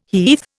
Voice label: "heath", Indian

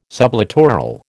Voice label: "sublittoral", American

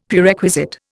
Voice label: "Prerequisite", Indian; Dictionary

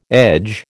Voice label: "edge", American

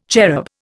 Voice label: "cherub", Indian

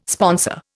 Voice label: "sponsor", Indian

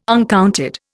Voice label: "uncounted", Indian

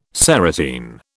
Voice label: "serotine", British

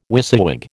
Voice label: "WYSIWYG", American

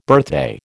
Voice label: "birthday", American

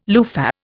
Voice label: "loofah", Indian

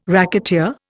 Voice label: "racketeer", Indian

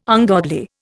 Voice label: "ungodly", Indian

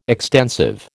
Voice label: "extensive", American

